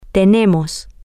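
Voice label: we have, Spanish, female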